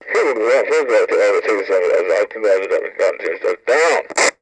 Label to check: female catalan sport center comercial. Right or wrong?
Wrong